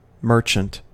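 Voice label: "merchant", US English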